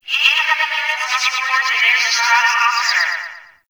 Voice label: Campsite Announcement; Warframe; Tau

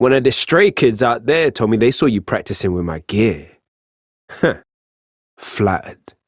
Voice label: Ambient Barks, en; Warframe; Mall Romance; Quincy

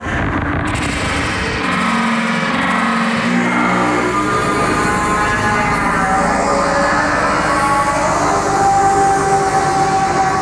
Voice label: Vocal Warning B, Enemies, Vocals; Warframe; Teralyst; Ropalolyst

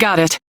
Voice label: Crew Accept, Female; en; Warframe; Railjack Crew; New Loka

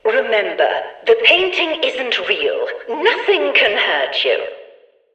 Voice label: Background Announcements

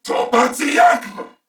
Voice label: Player Spotted, Corpus Venus Male; Warframe; CorpusVenusMaleA

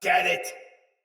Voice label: See Player Shout Soldier Sword Agent Male; Battle; Sinecure Melee

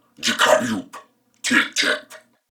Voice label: Patrol Male, en; Dialog; Barks; Battle; Corpus Venus; Warframe; CorpusVenusMaleB

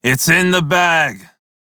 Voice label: crew task done, male; Dialog; en; Warframe; Railjack Crew; Red Veil

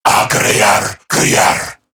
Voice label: Patrol Corrupted Grineer Male

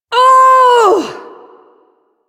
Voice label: Ability Long Cast, Barks

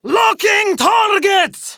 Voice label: Attack Prime Grineer Female; Tau; Battle Barks

